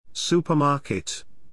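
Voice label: "supermarket", English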